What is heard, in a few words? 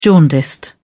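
"jaundiced", British English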